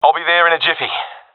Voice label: omw, professional epic; vo; Counter Strike Model Pack; CS:GO